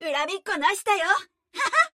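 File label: Japanese; 回声（守望先锋2）; 终极技能; 恨みっこなしだよ！（笑い）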